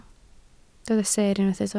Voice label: "seer", Welsh